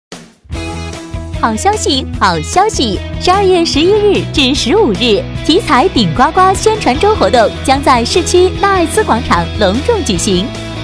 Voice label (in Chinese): 促销 体彩, 国语女声配音